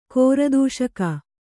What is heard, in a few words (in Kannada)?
"ಕೋರದೂಷಕ", Kannada